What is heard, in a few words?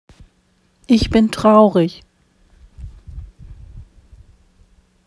Ich bin traurig pronunciation, How to pronounce Ich bin traurig in German